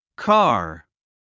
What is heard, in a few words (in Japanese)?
"car", アメリカ英語